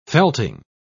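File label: "felting", British English, dictionary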